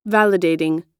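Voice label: "validating", American English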